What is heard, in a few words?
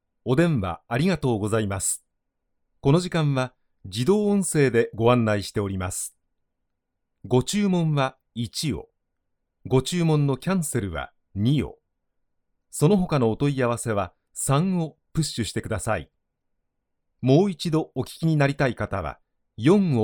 male